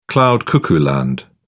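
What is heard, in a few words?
"cloud cuckoo land", British English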